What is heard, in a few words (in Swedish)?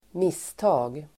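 "misstag", Swedish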